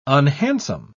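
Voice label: "unhandsome", British English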